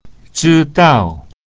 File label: zhi dao, chinese; to know